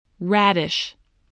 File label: "radish", english